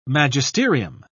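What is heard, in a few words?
"magisterium", British English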